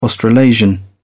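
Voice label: "australasian", British English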